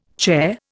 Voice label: "charr", Indian